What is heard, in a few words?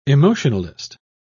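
"emotionalist", British English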